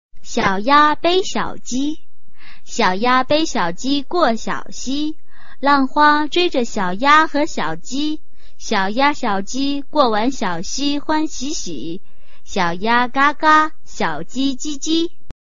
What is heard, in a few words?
chinese tongue twister